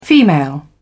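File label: "female", British English, dictionary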